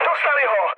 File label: aircraft destroyed, speech cze, mood low